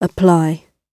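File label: "apply", British English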